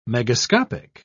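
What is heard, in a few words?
"megascopic", British English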